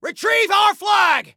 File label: Flag Retrieve, Soldier Male; Soldier Male 01